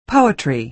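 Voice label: "poetry", American English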